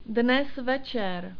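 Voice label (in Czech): "dnes večer", czech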